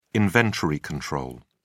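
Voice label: "inventory control", British English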